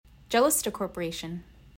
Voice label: "Calista", Yugtun, Yukon-Kuskokwim Delta; (cha-LIS-tah); Calista Corp.